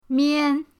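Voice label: "mian1", Mandarin Chinese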